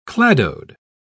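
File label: "cladode", British English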